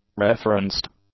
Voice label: "referenced", English; adjectives